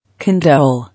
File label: "Condole", English